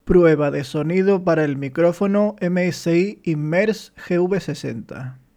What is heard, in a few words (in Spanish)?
Cardioide; MSI Immerse GV60